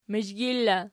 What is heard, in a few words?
"mizhgil", Archi